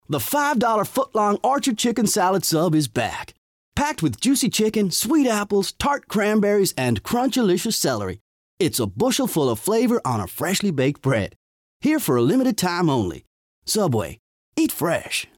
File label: Texas; subway